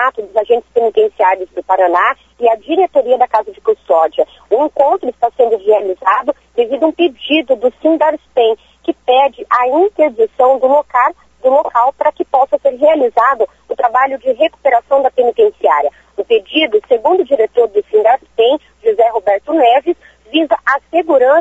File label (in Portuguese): ao vivo